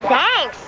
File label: "thanks" girl; Voice; expletives